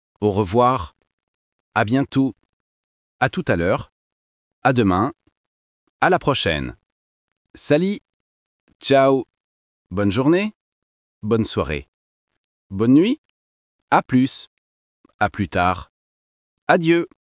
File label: goodbye in french